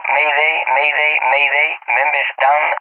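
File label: "member down", English, Spain; DCS; Allied Flight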